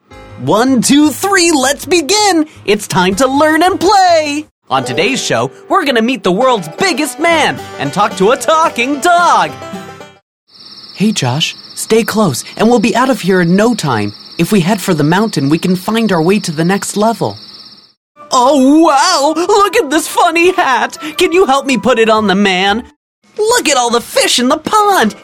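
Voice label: American, Toys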